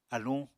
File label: "aluñ", Apatani